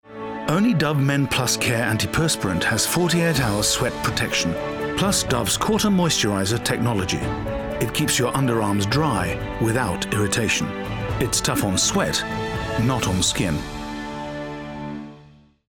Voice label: Announcer, Assured, Neutral RP; DOVE

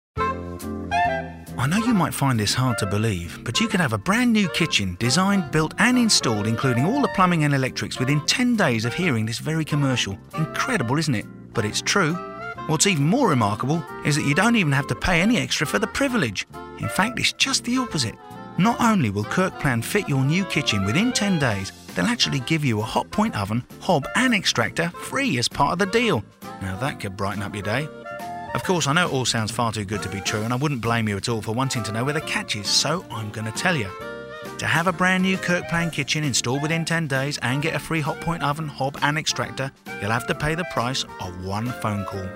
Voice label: Announcer, Cockey, Cockney; KIRKPLAN KITCHENS

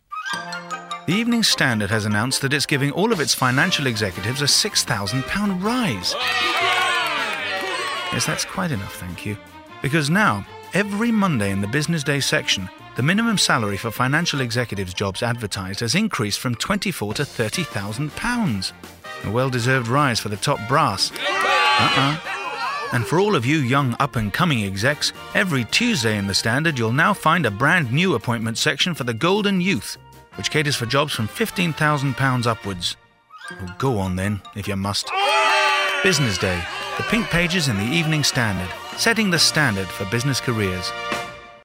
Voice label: Announcer, Humourous, Neutral RP; EVENING STANDARD

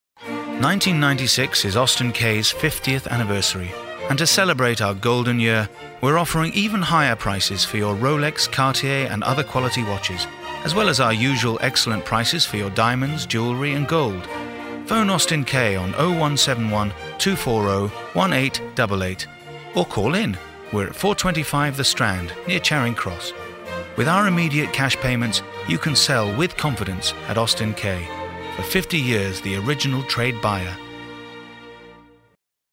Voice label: Announcer, Assured, Neutral RP

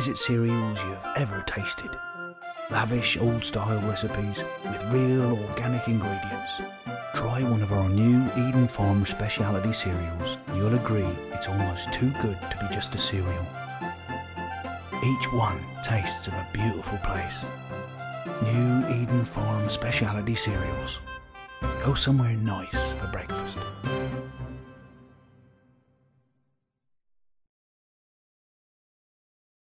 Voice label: Announcer, Gentle, W Country; EDEN FARM BAKERY

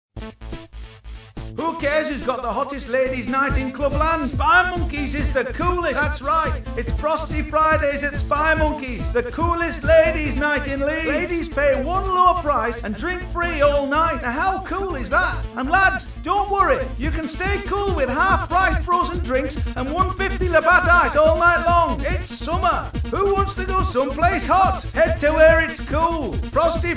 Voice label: Announcer, High/excited, Yorkshire; SPYMONKEY'S NIGHTCLUB

